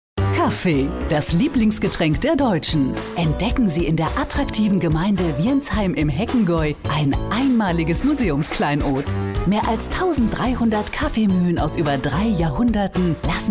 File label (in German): Radiowerbung